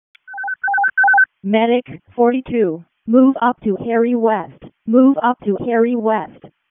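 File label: Wake County EMS ALERT, p25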